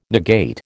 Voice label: "negate", American

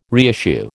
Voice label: "reissue", American

